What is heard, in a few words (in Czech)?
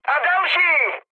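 Czech player killed enemy, mood medium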